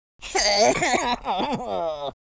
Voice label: lose loop, Horror